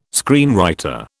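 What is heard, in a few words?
"screenwriter", British English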